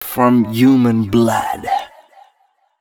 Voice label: male, Dance spoken hooks